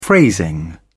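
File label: "phrasing", English, British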